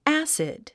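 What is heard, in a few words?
"acid", English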